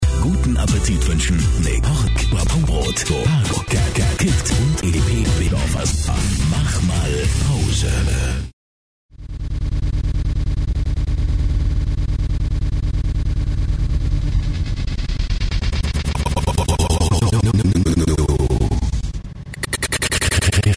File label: commercials